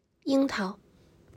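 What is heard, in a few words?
"樱桃", Chinese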